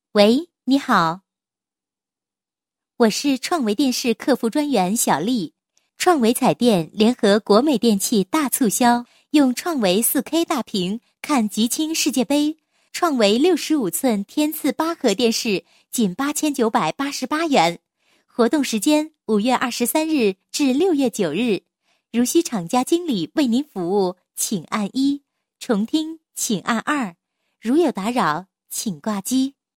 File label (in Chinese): 女 彩铃, 国语女声配音; 创维